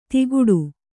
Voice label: "ದಿಗುಡು", Kannada